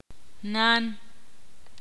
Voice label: ixil

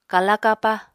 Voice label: "kala-kapa", apatani

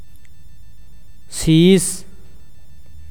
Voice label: "cold", mopan

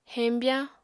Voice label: "heñbyañ", apatani

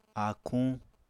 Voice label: "áakuñ", Apatani

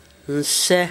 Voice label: at first, Gta, Dialect: Hill; nsɛ

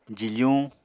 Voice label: "jifuñ", Apatani

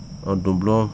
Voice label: "root", Wahgi; lae unitech

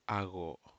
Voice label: Aneityum reach summit

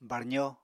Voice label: "barzo", Apatani

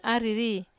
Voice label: "ariri", Wamesa

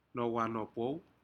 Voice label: "nohwanopou", Aneityum; shellfish

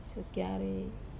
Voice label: "sokiare" rub, Wamesa

